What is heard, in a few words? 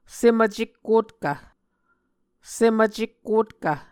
"sem-vcekkotkv", Muscogee